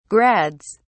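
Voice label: "grads", English, British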